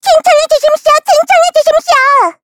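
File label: Victory, Korean; voices; heroes; Taily; kings raid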